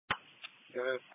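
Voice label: Police Patrol Dispatch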